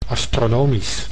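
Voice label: "astronomisch", Dutch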